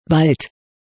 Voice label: "Bald", English